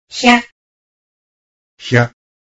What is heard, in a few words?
"hya", Japanese